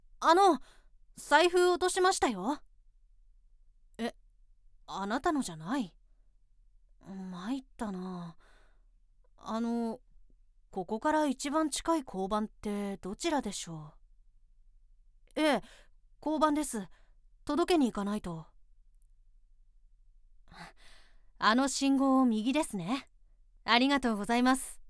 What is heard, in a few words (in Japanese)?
男性 財布落としましたよ